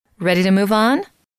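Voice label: ready To Move On, female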